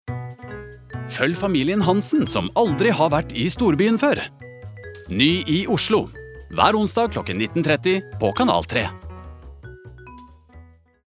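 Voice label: Norwegian promo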